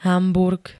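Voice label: "Hamburg", Slovak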